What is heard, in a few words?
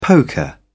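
"poker", British English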